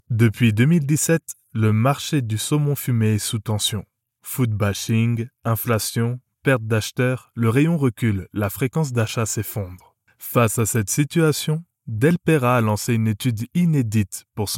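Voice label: CORPORATE, male voice actor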